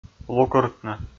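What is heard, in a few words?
"лукыртны", Komi, male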